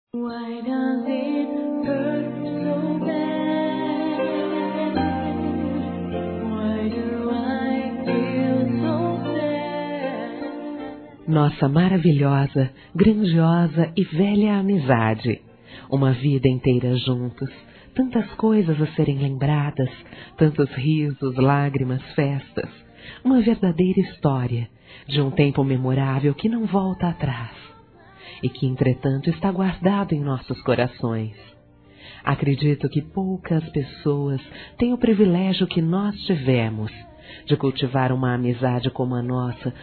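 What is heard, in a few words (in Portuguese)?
Telemensagens, Feminino; nossa velha amizade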